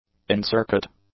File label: "in-circuit", English; adjectives